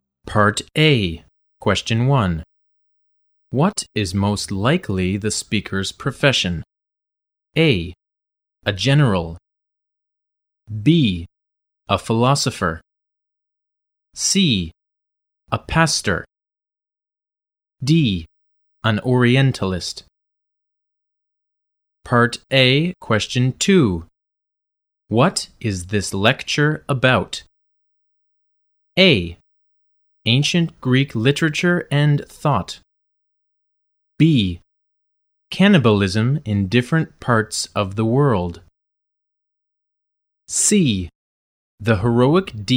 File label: edu